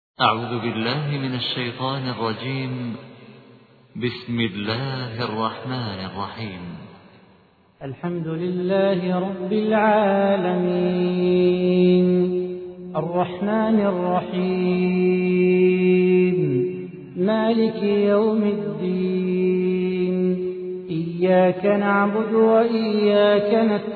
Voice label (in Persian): ترتیل سوره حمد; صلاح بوخاطر